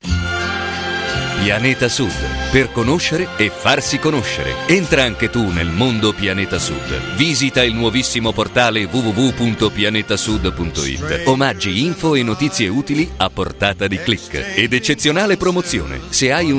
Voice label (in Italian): spot radio